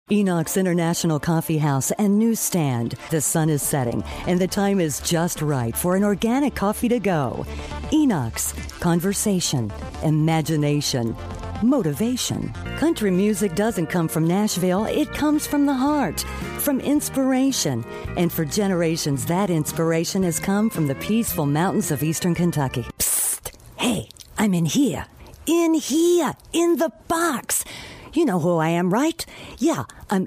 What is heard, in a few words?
Commercial Female